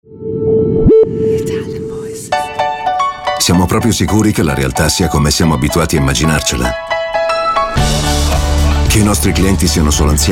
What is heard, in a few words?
ITALIAN VOICES Commercials, male voices